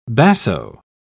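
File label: "basso", British English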